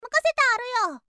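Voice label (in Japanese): "makasetaaruyo", Japanese girl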